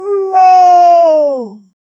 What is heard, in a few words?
egyptian queen voice reac